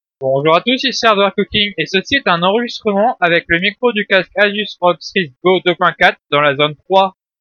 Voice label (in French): test micro